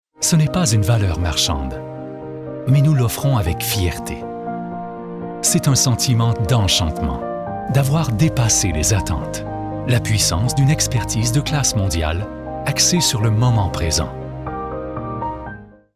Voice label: french canadian corporate videos

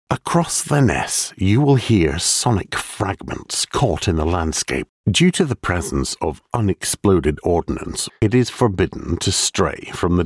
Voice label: english scottish audio guides

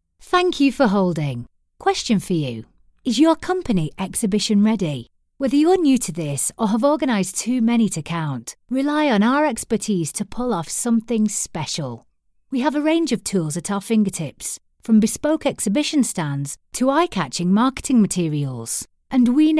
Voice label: english british ivr; northern (Lancashire/Manchester/Liverpool)

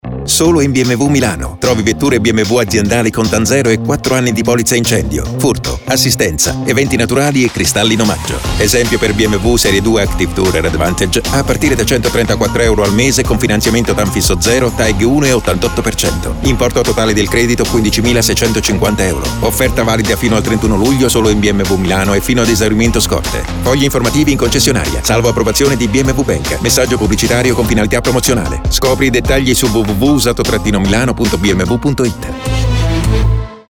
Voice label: italian automotive

